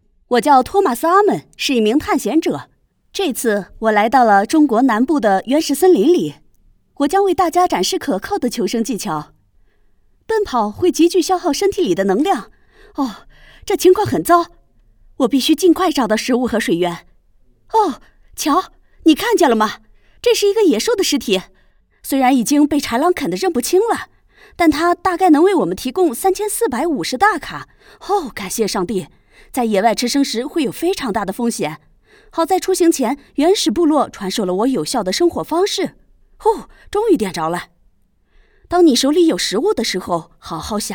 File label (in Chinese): 译制腔探险